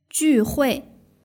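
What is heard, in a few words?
"juhui" Chinese word; doubleeleven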